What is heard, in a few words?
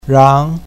"rang2", pinyin